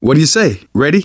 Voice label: ask ready, survivor voice manager; Left 4 Dead 2; left4dead2 dlc2